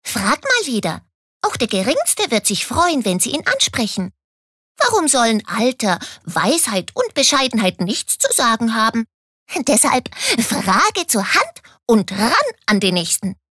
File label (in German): Charge Comic